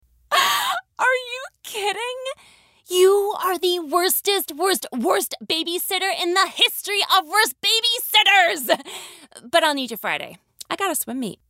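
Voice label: Commercial V/O - Standard British Accent, Bright, Excited, College Student; English US Accent; Annoyed, Entitled Millenial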